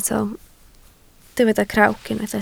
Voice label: "crawc", Welsh

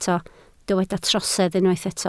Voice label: "trosedd", Welsh

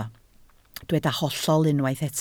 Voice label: "hollol", Welsh

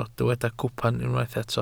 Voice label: "cwpan", Welsh

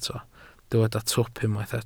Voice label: "twp", Welsh

